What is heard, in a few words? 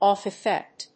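"off-effect", English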